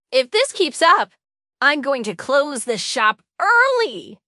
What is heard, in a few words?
happy, speech; fertig classic games